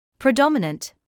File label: "predominant", medical English